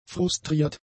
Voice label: "frustriert", German; adjectives